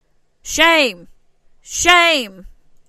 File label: shame female